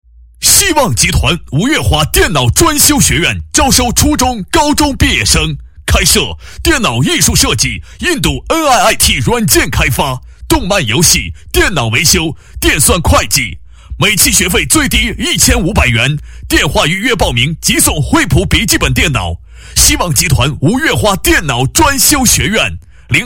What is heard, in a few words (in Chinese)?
男40 激情广告, 国语男声配音